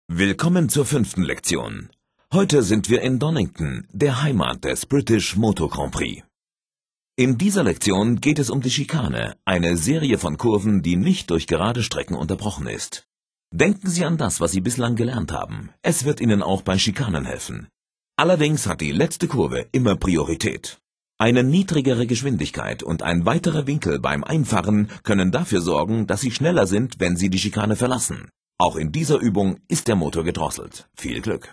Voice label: chicane german; MotoGP 3

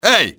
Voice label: "hey", russian soldier; XBOX

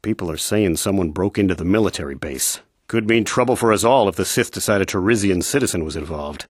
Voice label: male; Star Wars Knights Of The Old Republic I; XBOX

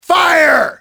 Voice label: fire, english male; XBOX; enemy

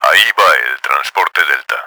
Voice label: delta down, Spanish; Battle Engine Aquila; XBOX

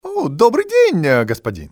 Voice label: goodday sir, male; XBOX; civ

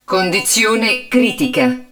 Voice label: HUD Damage Critical, Italian; XBOX; Battle Engine Aquila

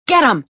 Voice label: anger; XBOX

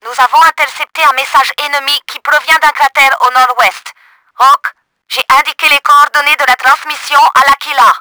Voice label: ROCKET, french; Battle Engine Aquila; XBOX

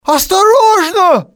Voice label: watchout, male; XBOX; civ

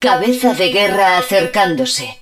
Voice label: HUD Incoming Warhead, Spanish

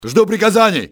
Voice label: awaiting orders, russian soldier; XBOX

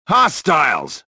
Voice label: anger; bespincop

